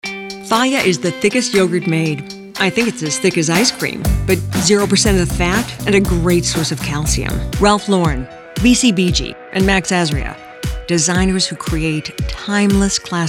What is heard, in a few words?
VO commercials